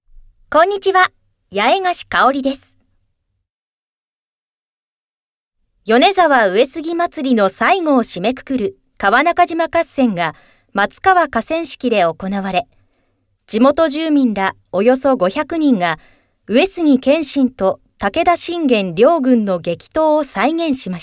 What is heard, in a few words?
news